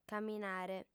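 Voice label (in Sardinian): "caminare", female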